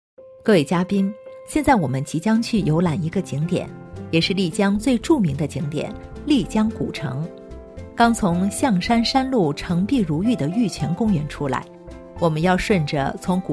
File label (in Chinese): 女 自然亲和; 丽江古城; 成熟